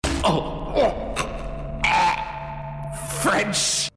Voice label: ew french